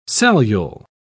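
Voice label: "cellule", British English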